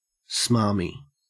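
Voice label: "smarmy", Australian English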